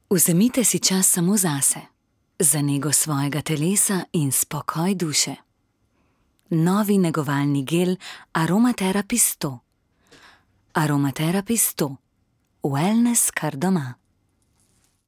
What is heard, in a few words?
female slovenian commercial